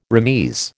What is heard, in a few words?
"remise", American